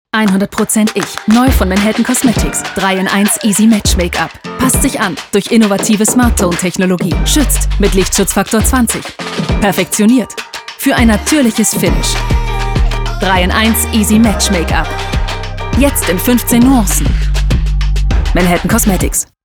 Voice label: advertisement; Manhattan Easy Match Make-Up